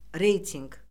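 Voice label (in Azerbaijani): "reytinq", Azerbaijani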